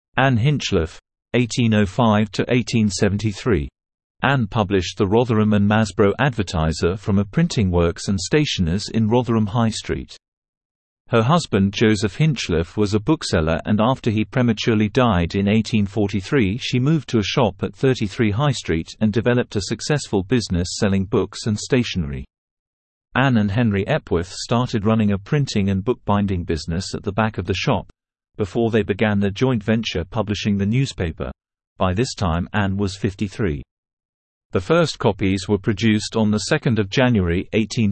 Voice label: Male